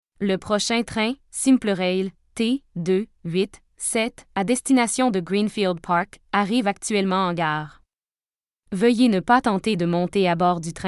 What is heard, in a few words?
arriving Train, voice announcement; ground transportation